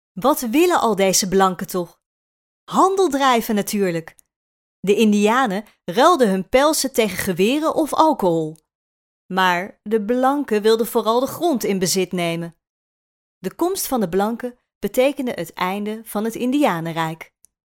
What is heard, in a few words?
dutch female; dictor; dictor-sounds